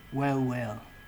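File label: "wellwell", Nigerian Pidgin, male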